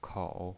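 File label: "kou", third tone, pinyin voice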